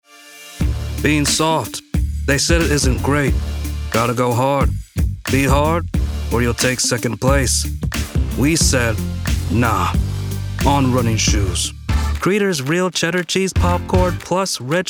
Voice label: Voice Actor; BigMouth Voices

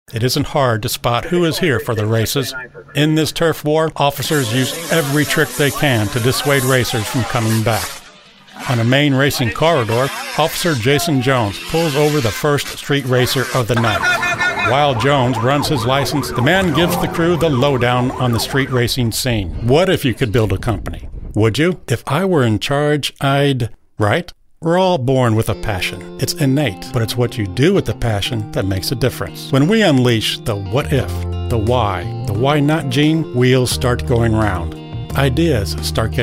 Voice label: Narration, en-GB

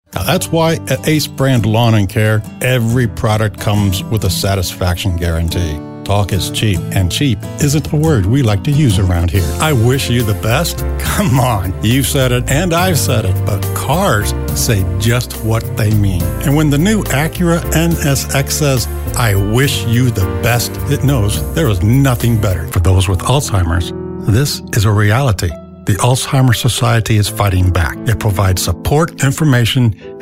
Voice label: Commercial, en-GB

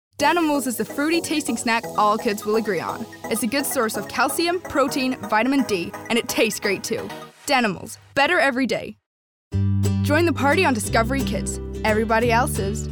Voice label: US Accent

